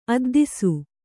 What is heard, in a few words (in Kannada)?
"ಅದ್ದಿಸು", Kannada